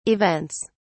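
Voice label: "events", British English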